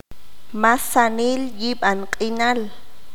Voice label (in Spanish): "todo el mundo", qanjobal